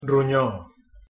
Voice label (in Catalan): "ronyo", Com pronunciar en català